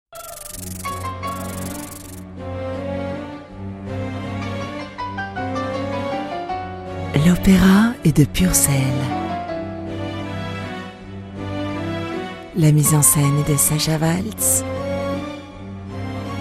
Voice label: Intimiste sensuel; Purcell

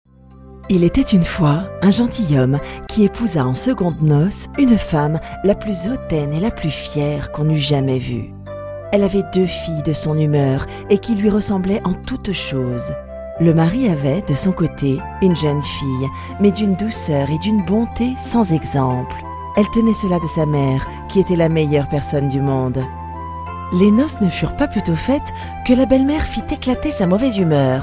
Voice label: Naturel souriant doux; voix off; Cendrillon

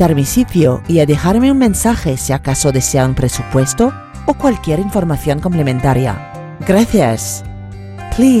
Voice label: Message trilingue; voix off

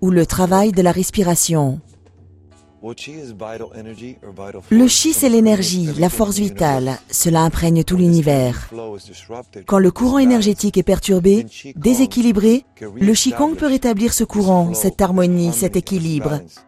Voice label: Chi Kong Pose doux naturel

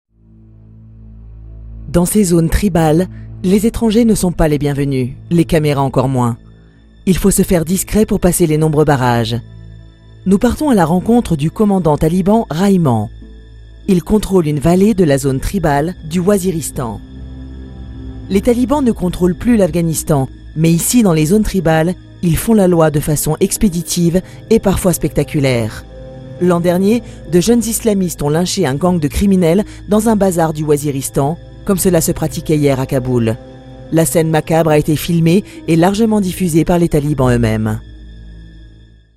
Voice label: Journalistique grave; Talibans

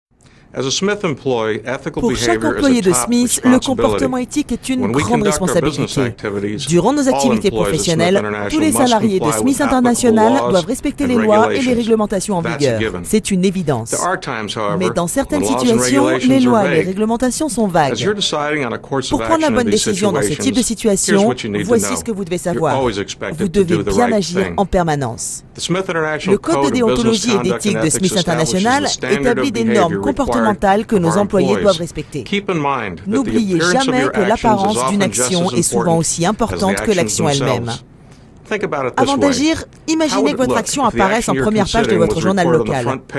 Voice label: Explicatif droit; voix off; SAI

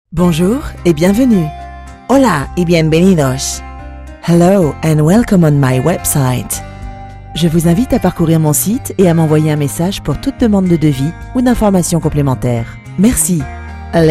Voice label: accueil trilingue

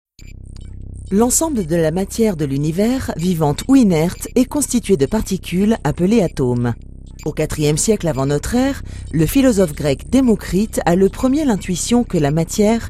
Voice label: Informatif droit; L Atome

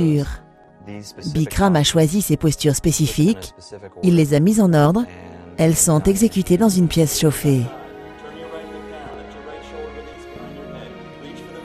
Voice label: Yoga Bikrham Chaleureux doux pose

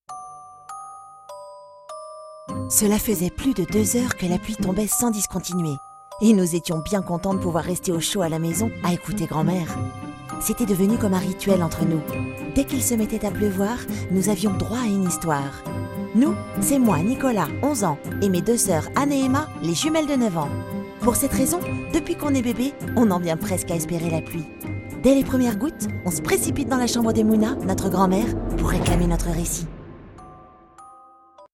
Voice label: Jeune garçon Suspense confidence; voix off

